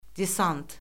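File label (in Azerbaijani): "desant", Azerbaijani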